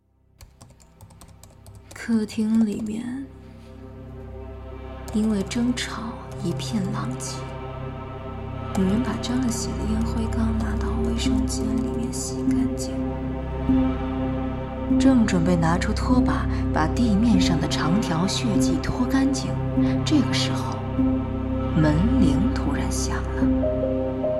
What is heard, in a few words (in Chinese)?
旁白